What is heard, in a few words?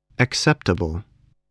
"acceptable", English voice